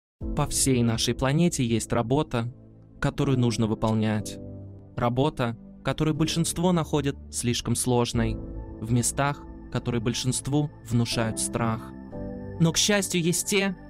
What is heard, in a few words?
Russian